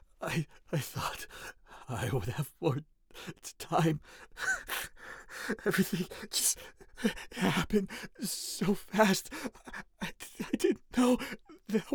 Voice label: Video Game Sample drama, Grief